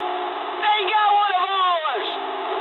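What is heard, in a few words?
aircraft destroyed mood low, speech English; War Thunder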